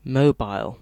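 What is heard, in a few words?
"mobile", British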